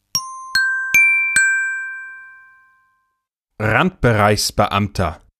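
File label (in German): "Randbereichsbeamter", German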